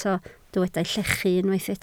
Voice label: "llechu", Welsh